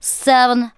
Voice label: "seven", spoken; Techno Trance Essentials